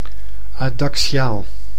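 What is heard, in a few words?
"adaxiaal", Dutch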